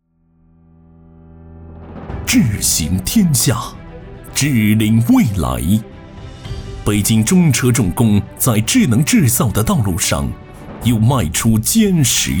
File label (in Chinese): 男 专题; 北京中车重工